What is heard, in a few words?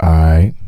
A IGHT, Male Vox; Hip Hop Nation